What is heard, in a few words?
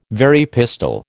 "Very pistol", American